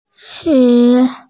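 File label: "shi2", Mandarin Chinese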